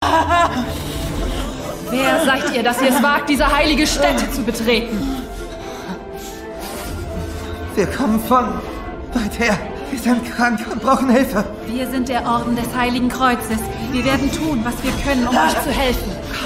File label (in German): Synchron Ordensschwester; Agathe